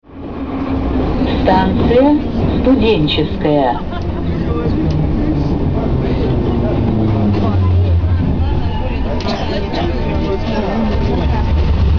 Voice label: female